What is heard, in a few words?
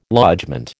"lodgement", American